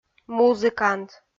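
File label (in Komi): "музыкант", Komi, female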